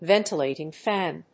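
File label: "VENTILATING FAN", English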